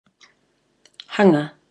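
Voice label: "hanga", Maori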